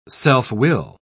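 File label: "self-will", British English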